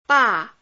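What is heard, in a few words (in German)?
Phoneme, chinesisch; Silben; Chinesischen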